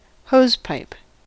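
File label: "hosepipe", US English; pronunciations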